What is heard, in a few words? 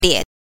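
"lie", Mandarin Chinese pinyin, neutral tone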